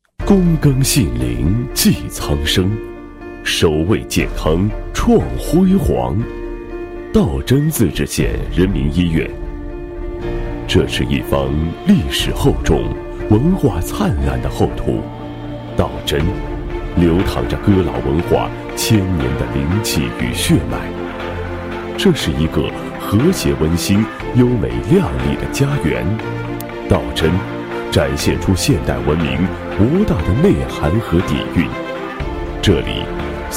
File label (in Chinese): 男 医院介绍 配音; 道真自治县人民医院